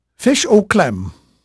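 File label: English male, voice; Pirates Of The Caribbean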